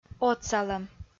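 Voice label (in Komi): "отсалӧм", Komi, female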